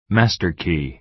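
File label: "master key", American English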